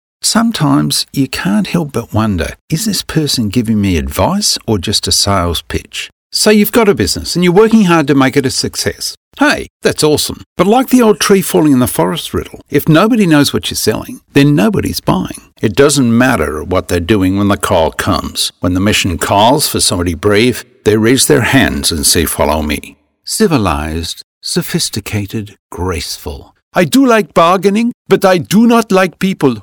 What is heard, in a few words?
Aust; VoiceRealm